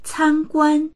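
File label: "can guan", Mandarin; 参观; 参観する、見学する; dictionary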